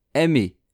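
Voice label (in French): "aimee", French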